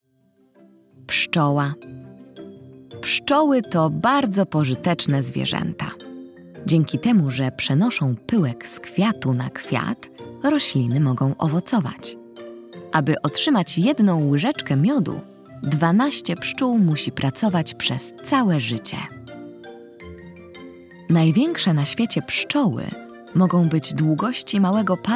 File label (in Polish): narracja; czasopismo mis; wiosna